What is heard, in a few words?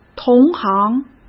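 "同行", Mandarin Chinese; chinese-flashcards; hsk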